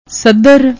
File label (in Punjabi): "sadhr", Punjabi; ਸੱਧਰ